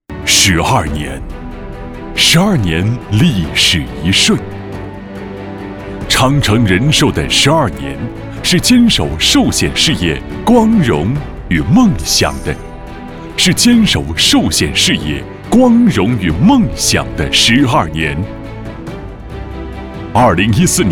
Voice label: 教师节解说词配音

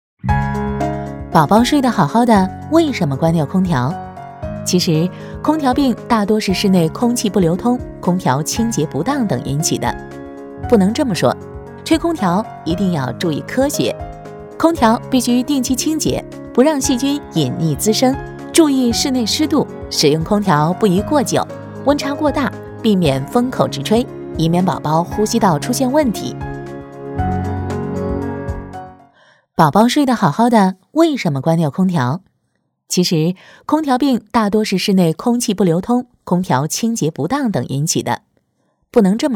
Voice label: 女 科普 样音

